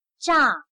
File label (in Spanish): "炸", Chino